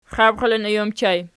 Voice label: xabxilinoj ergpl, archi